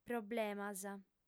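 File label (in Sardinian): "problemas", female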